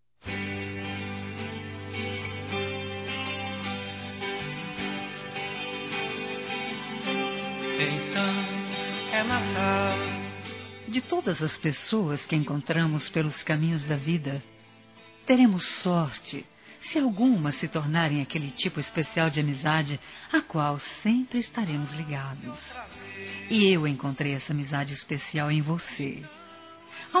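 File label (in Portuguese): Natal para amigo (a) feminino, Telemensagens; Natal e Ano novo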